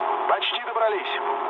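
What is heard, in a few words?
near waypoint, mood low, Russian; War Thunder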